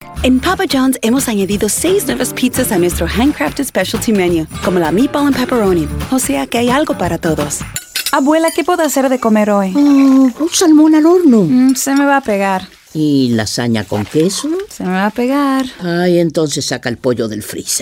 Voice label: voiceover foreign language; chicago; spanish

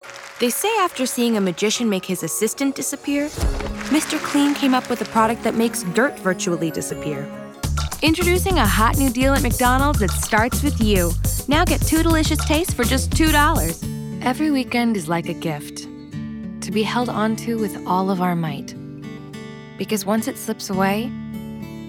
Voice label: Voiceover, Commercial, Women; New York

